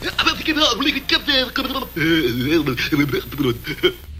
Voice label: cartoon word salad, Voice gibbrish

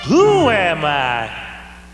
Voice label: who am i cartoon; questions

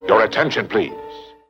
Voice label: your attention please, male; Voice; introductions

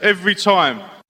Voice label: every time, british bloke

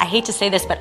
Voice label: i hate to say this but, female

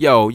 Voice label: "yo", voice

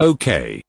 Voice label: "okay", expletives

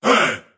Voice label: "hey", group of men

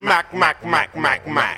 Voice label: mack mack mack mack mack mack, gibbrish; Voice

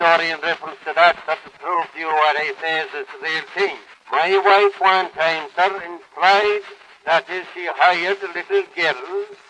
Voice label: my wife, Voice gibbrish

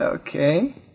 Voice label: "okay", expletives

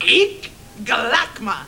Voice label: eek galackma, gibbrish

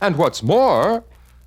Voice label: announcer; and what's more

